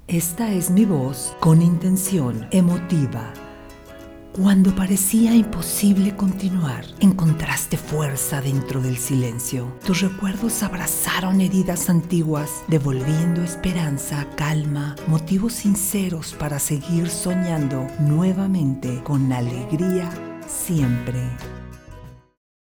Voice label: Emotiva Musicalizada, locutora

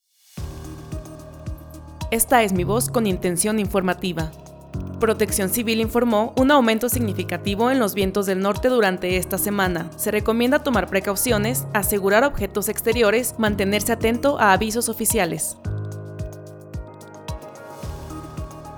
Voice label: Informativa Musicalizado, locutora

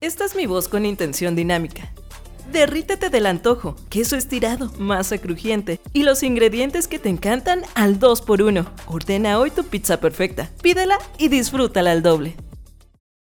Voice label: Dinamica Musicalizada, locutora

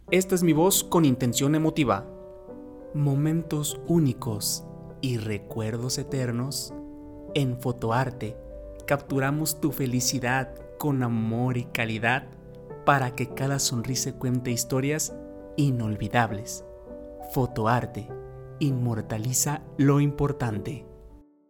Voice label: emotiva; locutor

